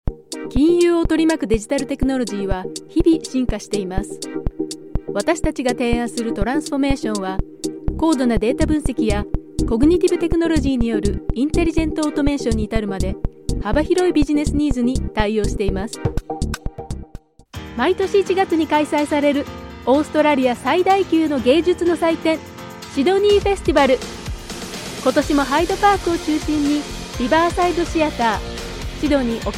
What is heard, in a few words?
Japanese Voice Over, Female